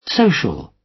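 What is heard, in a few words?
"social", British; Flora and fauna, agriculture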